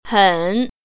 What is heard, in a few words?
"hen3", Mandarin Chinese; 很; One-Character Modifiers; Tone Modifiers